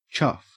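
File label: "chuff", English, Australian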